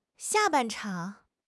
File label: "xia4 ban4 chang3", 汉语口语; 汉语口语新时代-初级下